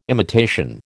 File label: "imitation", American